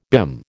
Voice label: "BIM", American

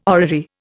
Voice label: "orrery", Indian

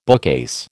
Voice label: "bookcase", American

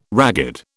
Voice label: "ragged", British

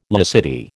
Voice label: "laicity", American

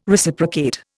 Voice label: "Reciprocate", Indian

